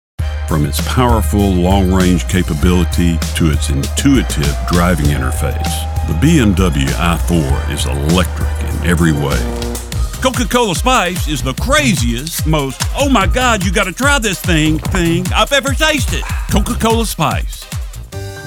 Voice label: Commercial